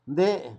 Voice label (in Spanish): "aquí", Zapoteco Magdalena Ocotlan; Adverbios; INALI; Haspelmath